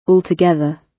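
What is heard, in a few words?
"altogether", British English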